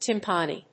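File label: "tympani", English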